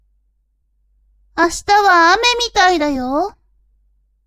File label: woman